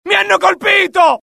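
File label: alert, Italian; mohaa; dialogue; sighted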